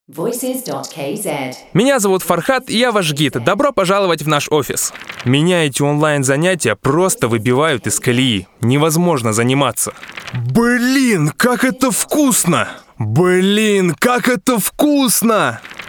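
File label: Russian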